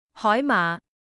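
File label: "seahorse", Cantonese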